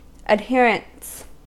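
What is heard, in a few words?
"adherents", US English; pronunciations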